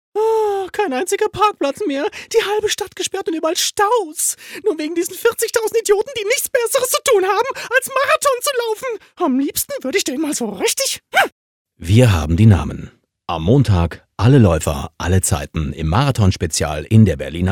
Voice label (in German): Charge Frech